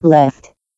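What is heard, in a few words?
"left", English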